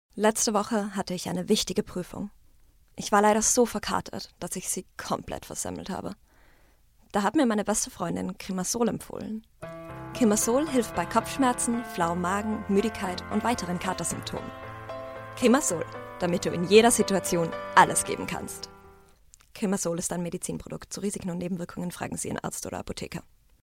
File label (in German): Deutsch Werbung; Krimasol